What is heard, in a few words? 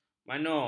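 "manor", Malay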